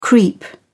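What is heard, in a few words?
"creep up", British English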